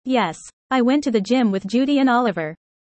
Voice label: user fast